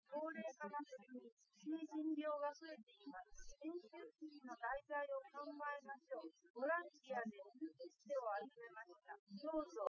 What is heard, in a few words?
female, live rec; SiSEC08; SiSEC underdetermined